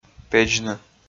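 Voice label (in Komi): "педзны", Komi, male; audio dict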